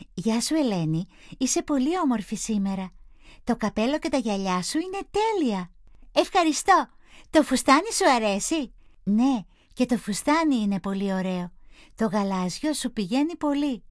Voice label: dialog